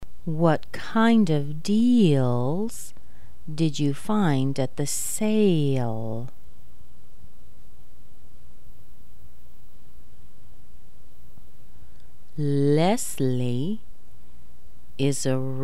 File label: L Sentences slow, American accent